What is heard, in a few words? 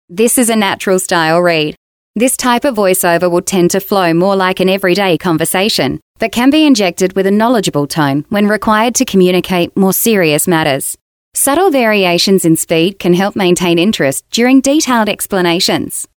on hold messages female